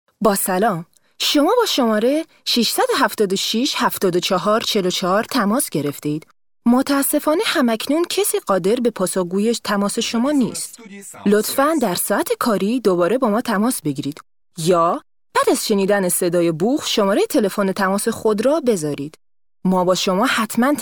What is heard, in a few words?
farsi; ats